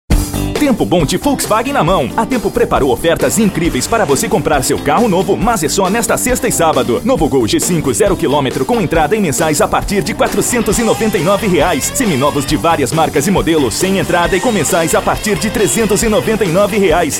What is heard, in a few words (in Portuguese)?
spot; fiat; tempo bom; volkswagen